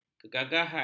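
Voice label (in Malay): "kegagahan", Malay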